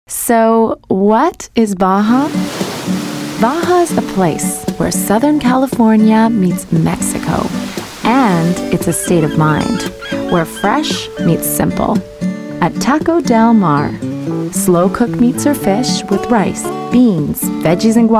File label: Commercial Voice